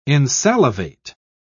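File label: "insalivate", British English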